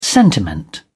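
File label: "sentiment", British English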